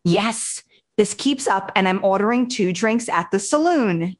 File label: happy, speech; fertig classic games; beth